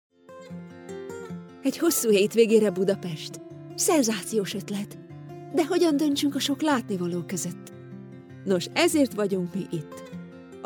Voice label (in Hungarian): Hungarian Commercial